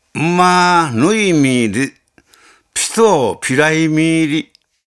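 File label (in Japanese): Ishigaki Sikaaza, しまくとぅば; 馬ンマー 乗ヌイミーリ。人ピトー ピライミーリ。; 八重山四箇字の言葉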